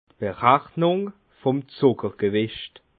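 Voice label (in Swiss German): "berachnung", Alsatian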